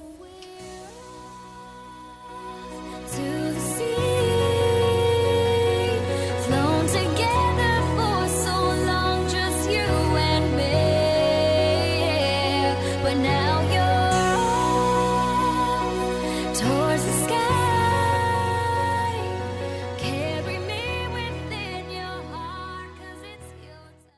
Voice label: Aniversário Atrasado (Amigo Distante) Feminino; Aniversários; Aniversários diversos

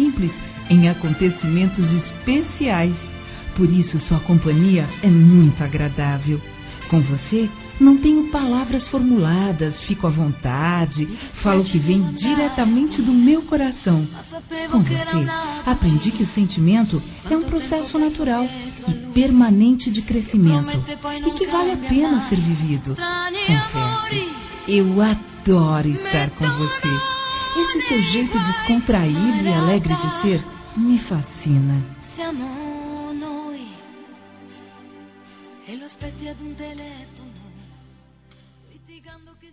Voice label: Quem Fica Feminino; Telemensagens; Despedida Boas Vindas; Telemensagens 2017

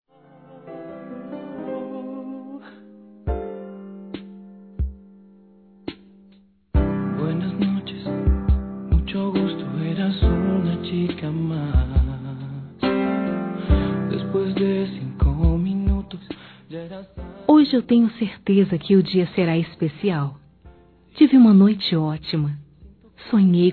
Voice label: Feminino, Telemensagens Românticas; Sonhei com Você

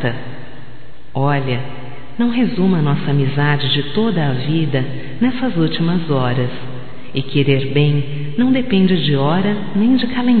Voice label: Imprevisto Para Aniversário Feminino, Telemensagens, Só Voz; Telemensagens 2017

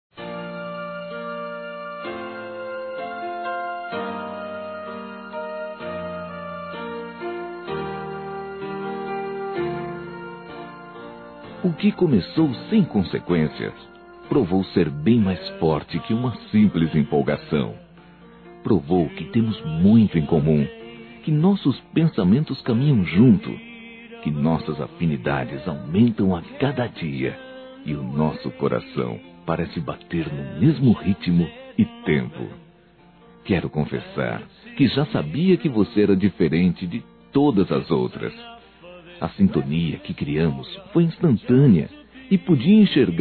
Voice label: NAMORO M

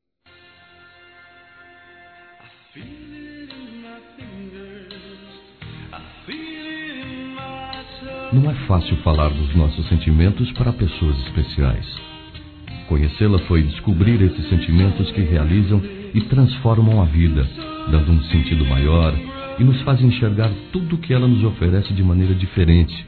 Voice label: Bom Te Conhecer Masculino

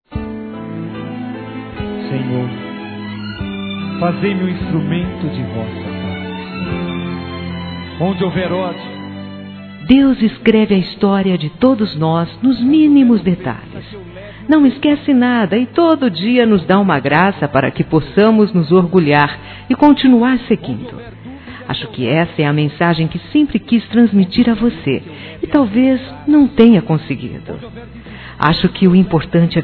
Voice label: Aniv. Filha religioso f.

